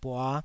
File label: "bua5", taiwanese syllables